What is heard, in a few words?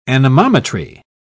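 "anemometry", British English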